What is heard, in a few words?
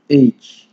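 "age", Nigerian Pidgin, male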